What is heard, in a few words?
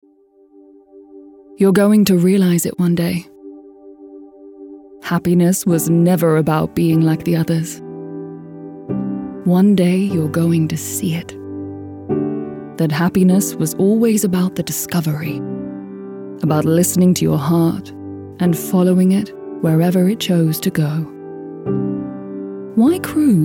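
Ad, female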